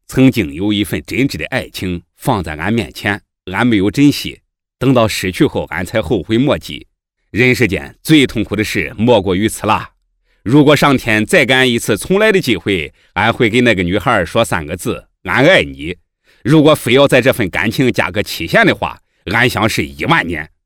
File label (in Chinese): 男 动画 模仿 山东话模仿; 大话西游